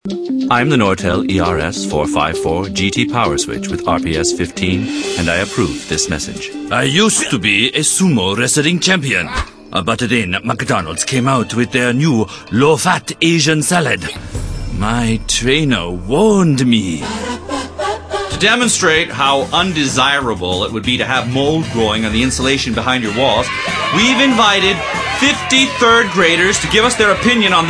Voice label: male, sophisticated